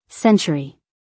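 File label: "century", American English, female; 1000 hours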